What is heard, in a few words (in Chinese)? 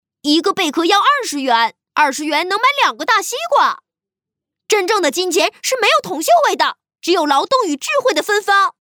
动画 童声 男童